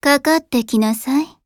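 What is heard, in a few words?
skill, Japanese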